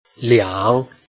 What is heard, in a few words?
"liang3", Chinese